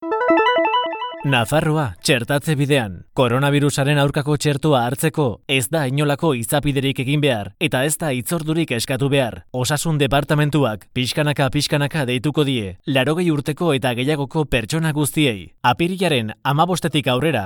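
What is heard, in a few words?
corporativo, Basque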